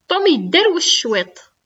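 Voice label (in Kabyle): Tom yedderwec cwiṭ., Kabyle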